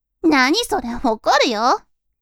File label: women